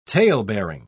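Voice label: "talebearing", British English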